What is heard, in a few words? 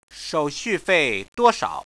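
How much commission do you charge, Chinese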